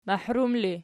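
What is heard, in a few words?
"mahrum", ergative, Archi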